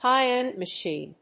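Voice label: "High-end machine", English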